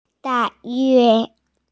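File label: "da4yue1", Mandarin Chinese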